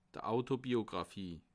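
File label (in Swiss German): "autobiographie", Bas Rhin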